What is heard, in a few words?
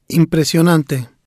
"Impresionante", spanish; Interview